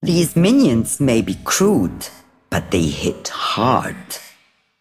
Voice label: Female Broker Flavor